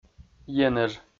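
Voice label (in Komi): "енэж", Komi, male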